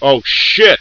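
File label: gangster grenade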